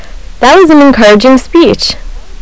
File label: American Sincere